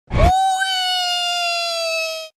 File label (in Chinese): 死出-oi(搞笑起飞)